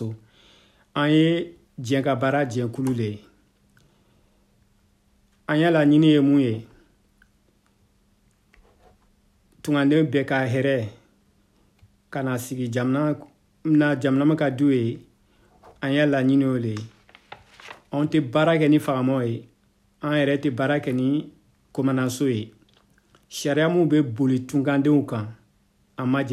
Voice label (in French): malinke bienvenue atelier français